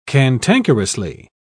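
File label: "cantankerously", British English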